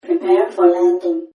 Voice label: Computer Female Voice; Robot; Robot Sound Effects

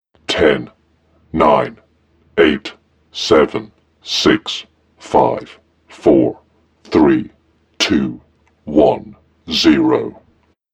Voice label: Deep Countdown 10-1, Recorded Voice

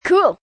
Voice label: "Cool" Kids, child, boy; Talking; VoiceBox

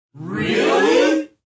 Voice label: Really Group, Exclamation; VoiceBox

